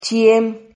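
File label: "chihem", Spanish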